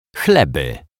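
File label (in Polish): "chleby", Polish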